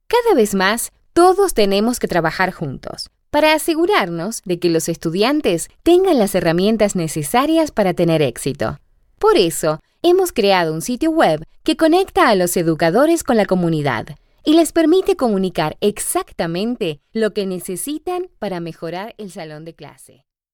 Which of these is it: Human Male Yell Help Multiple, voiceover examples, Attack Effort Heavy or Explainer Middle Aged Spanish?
Explainer Middle Aged Spanish